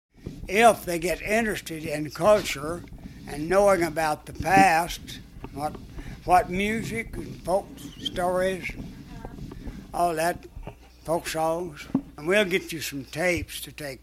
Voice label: Arkansas Mountain WM age 93, US Southern Dialects, Mountain Southern